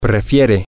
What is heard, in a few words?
he prefers, spanish, M